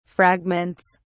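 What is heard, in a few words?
"fragments", English, British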